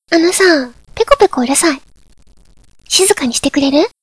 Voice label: chat